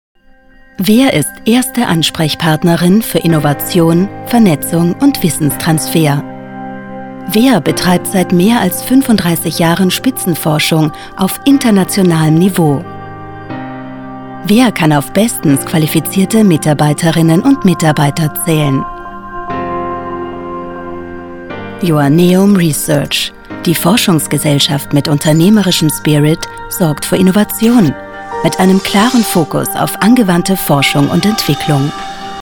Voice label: Austrian female; Corporate video Joanneum Research